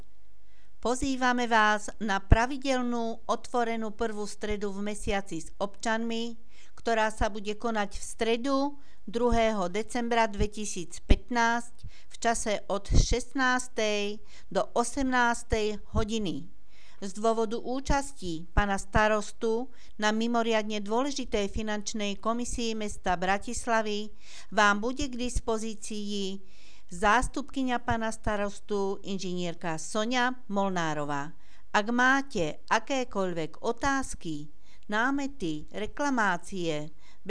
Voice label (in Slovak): Hlásenie miestneho rozhlasu; Hlásenie miestneho rozhlasu k prvej strede pre občanov